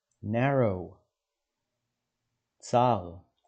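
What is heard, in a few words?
"narrow" English, "צר" Hebrew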